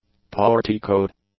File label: "porticoed", english; adjectives